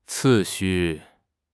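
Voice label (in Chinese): "次序", Chinese; HSK6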